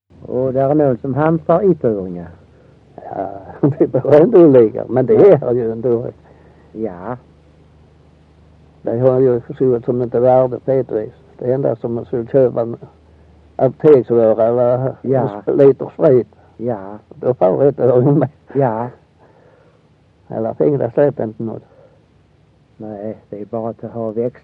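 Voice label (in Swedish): Össjö Skåne, dialekter; Folkminnen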